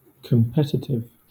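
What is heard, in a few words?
"competitive", British English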